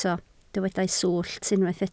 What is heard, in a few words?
"swllt", Welsh